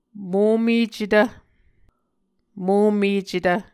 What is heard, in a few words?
"momecetv", Muscogee